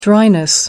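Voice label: "dryness", British English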